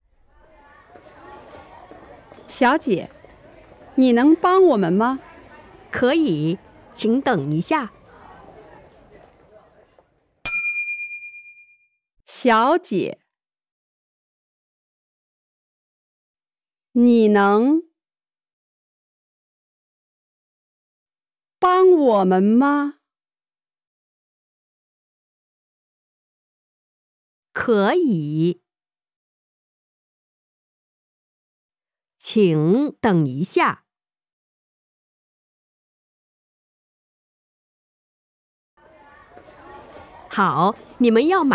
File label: Shopping for clothes, Chinese